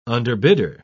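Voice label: "underbidder", British English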